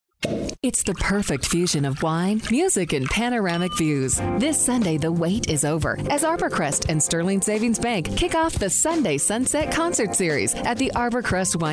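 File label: Commercial Female, Friendly, Strong, Welcoming; TV and Radio, Auto Dealer, Narration, Whiteboard/Explainer, Promo, Corporate, Radio Imaging, Phone Hold/IVR; Commercial Voiceover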